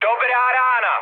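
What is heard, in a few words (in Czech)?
Czech player killed enemy, mood medium; War Thunder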